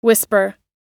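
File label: "whisper", English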